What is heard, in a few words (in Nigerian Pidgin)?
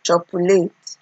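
"chopulate", Naija, female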